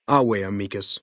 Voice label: male adult greeting; Fnv